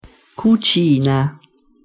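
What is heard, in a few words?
"cucina", pronunciation Italian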